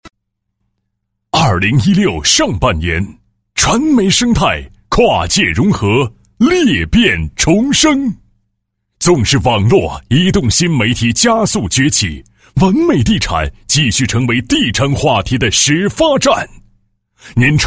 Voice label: 男 电视栏目宣传 节目宣传; 广西科教频道 完美地产

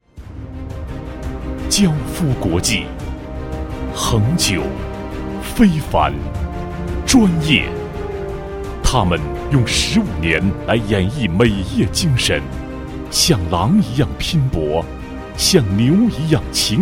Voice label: 男 专题 宣传介绍, 国语男声配音; 娇肤国际

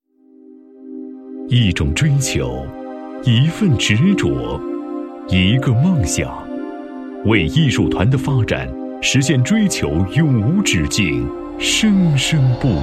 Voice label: 男 专题 企业宣传 讲述 磁性 稳重; 国语男声配音; 磁性专题

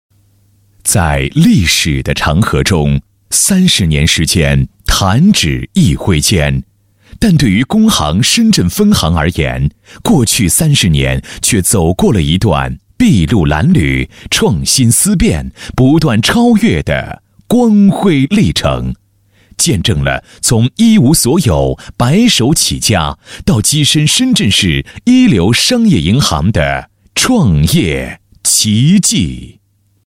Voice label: 男 纪录片配音; 工商银行

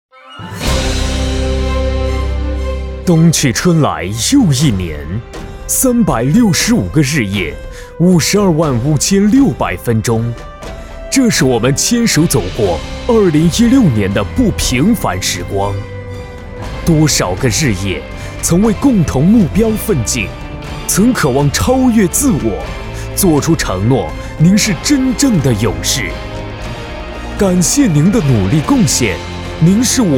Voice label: 男 专题 颁奖

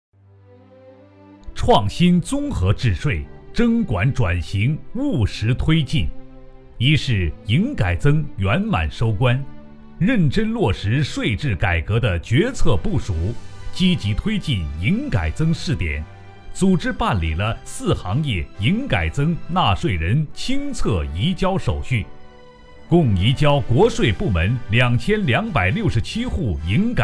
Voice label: 男 工商税务汇报

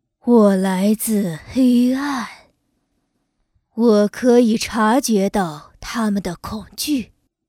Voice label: 女; 黑寡妇

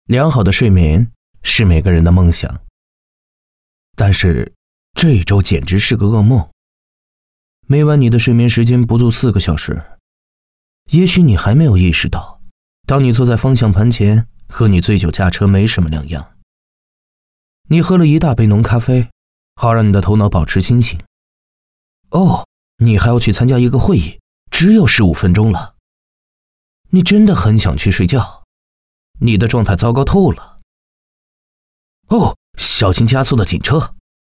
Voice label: 男, 广告宣传 宣传广告配音; 飞利浦伟康

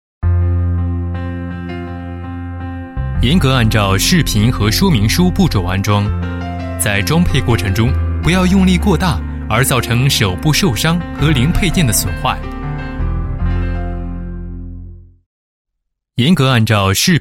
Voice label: 男-解说专题-摩托车安装解说

